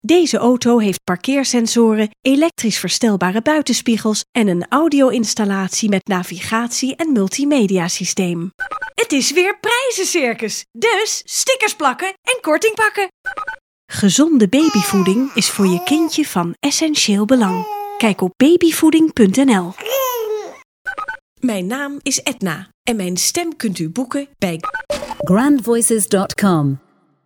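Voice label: Dutch female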